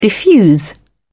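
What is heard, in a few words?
"diffuse", en, British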